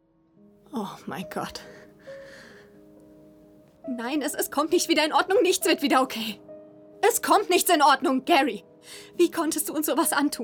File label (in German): Synchron Drama Streit